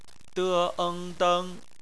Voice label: "deng", Chinese; phonetics; bpmf